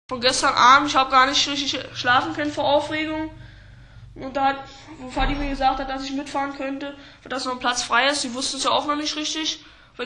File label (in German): Maerkisch, Berlinerisch; Mitteldeutsch